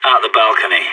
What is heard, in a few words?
balcony, radio; SAS